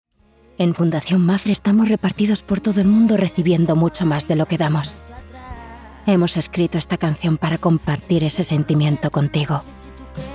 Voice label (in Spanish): TONO GRAVE; MAPFRE